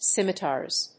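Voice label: "scimitars", English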